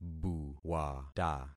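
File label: "buwata", concatenated syllables; Affixing experiment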